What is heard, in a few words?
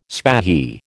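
"spahi", American English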